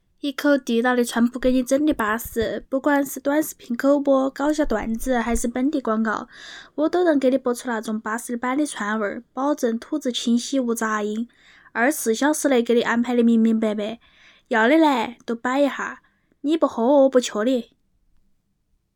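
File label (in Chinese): 四川版, 方言配音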